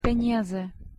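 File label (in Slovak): "peniaze", Slovak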